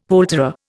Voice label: "poulterer", Indian; Dictionary